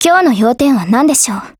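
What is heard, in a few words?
HELLO, Japanese; Scout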